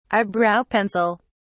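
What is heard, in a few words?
"eyebrow pencil", British English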